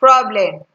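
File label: "problem", Nigerian Pidgin, female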